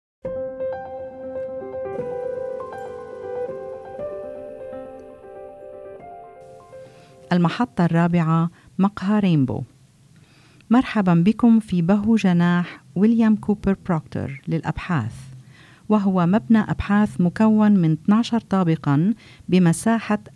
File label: Rainbow Cafe Arabic